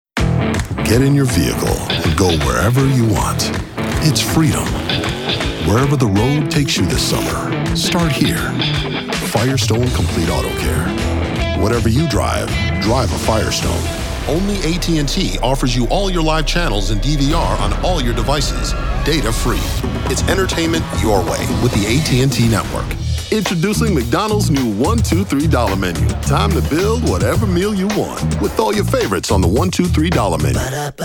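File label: Commercial, African American Voice Actor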